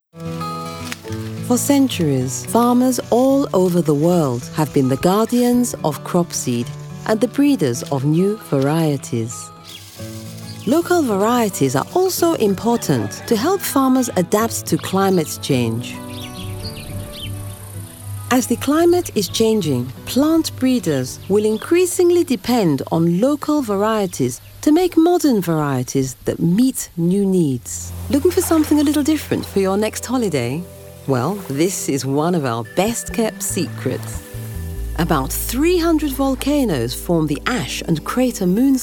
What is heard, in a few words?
Narrative Voice